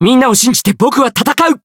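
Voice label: chant, Japanese; cless; variable chant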